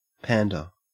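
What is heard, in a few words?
"pander", Australian English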